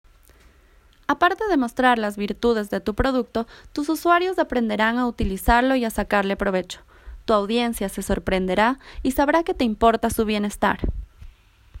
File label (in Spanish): Tutorial